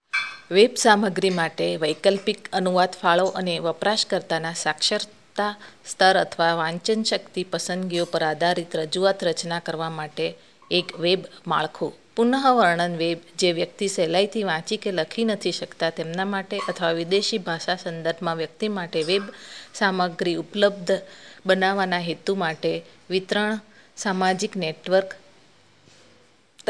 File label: narration; guj